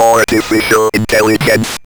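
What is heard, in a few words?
ROBVOX, robot voice; E-Lab; X Static Goldmine 1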